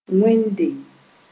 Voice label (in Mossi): "wẽnde", Moore